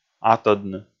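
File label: "атӧдны", Komi, male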